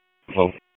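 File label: Police Northeast District Dispatch, p25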